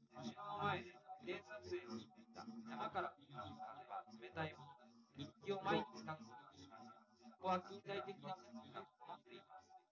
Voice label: male, live rec